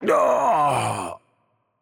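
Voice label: French death voice; bloom minigame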